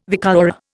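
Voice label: "vicuña", Indian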